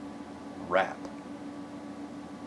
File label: IPA vowel